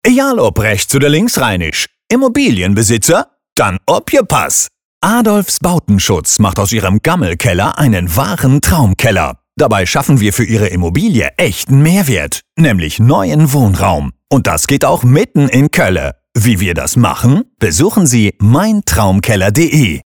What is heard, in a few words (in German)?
Radiospot